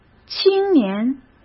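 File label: "青年", Mandarin Chinese; chinese flashcards; hsk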